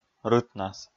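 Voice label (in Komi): "рытнас", Komi, male; kpv audio dict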